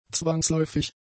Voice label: "zwangsläufig", German; adjectives